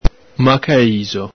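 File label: "macalixo", Venetian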